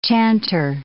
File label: "chanter", English